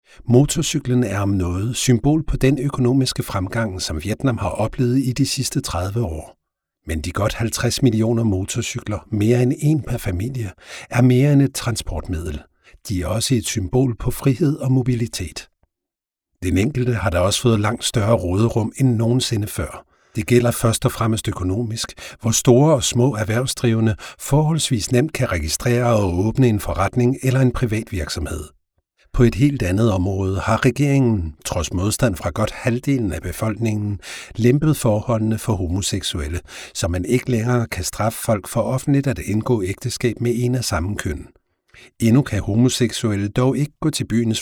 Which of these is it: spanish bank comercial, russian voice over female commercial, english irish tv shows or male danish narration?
male danish narration